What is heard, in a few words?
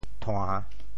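"thua~3", Teochew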